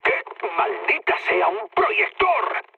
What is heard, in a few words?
searchlite, mood med, speech; War Thunder